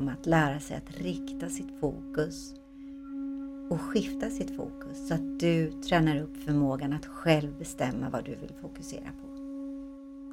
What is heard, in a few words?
mindfulness meditation